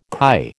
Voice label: "kai", American

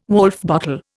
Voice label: "Woulfe bottle", Indian

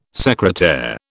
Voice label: "secretaire", British

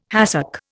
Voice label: "hàcek", Indian

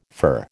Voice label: "fir", American

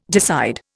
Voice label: "decide", Indian

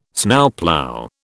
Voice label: "Snowplough", British

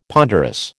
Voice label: "ponderous", American

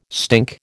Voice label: "stink", American

